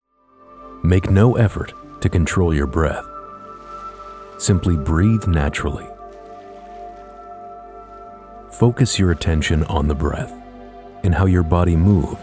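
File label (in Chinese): 外籍男声 走心 美式英语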